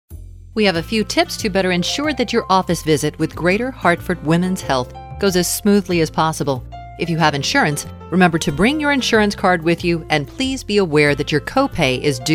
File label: health center, female voices; audio on hold